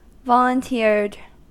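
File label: "volunteered", American English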